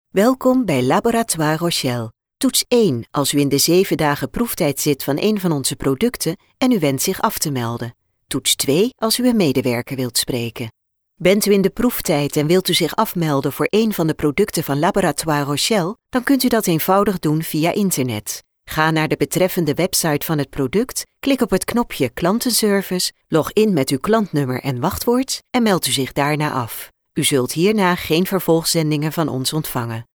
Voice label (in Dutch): Vrouw